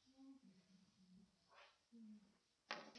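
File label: "pencil", spoken word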